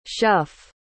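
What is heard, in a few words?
"shuff", English word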